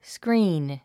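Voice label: "screen", English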